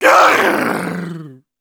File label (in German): pain severe; Engineer